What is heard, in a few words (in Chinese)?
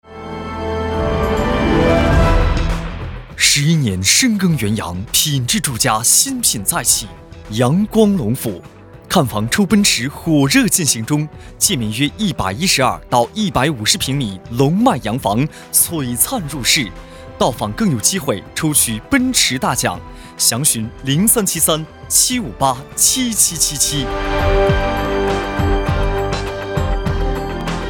房地产大气